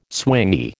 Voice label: "swingy", American